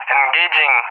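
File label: "engaging", English, Russia; DCS; Allied Flight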